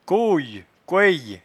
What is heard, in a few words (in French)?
"coye", patois jura